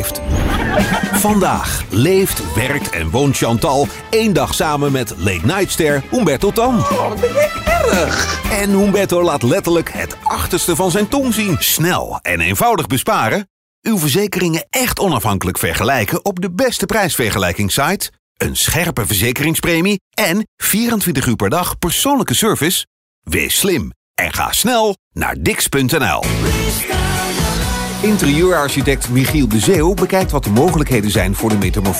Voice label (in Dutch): algemeen, voice over